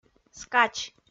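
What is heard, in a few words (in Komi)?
"скач", Komi, female; kpv audio dict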